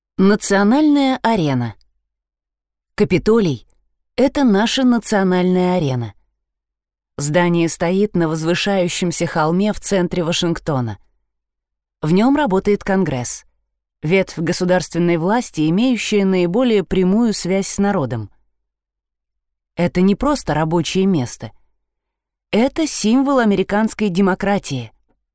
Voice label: audiogid; Аудиогид Капитолий